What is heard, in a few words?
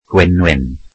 welsh poison